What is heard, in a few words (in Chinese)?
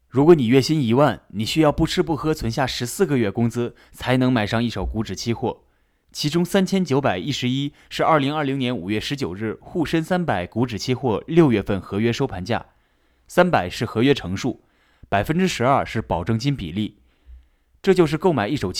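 股指期货试音配音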